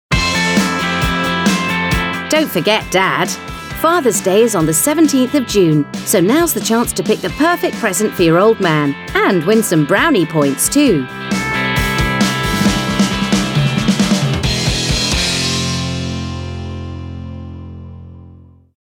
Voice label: fathers day, female